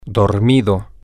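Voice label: asleep, Spanish, male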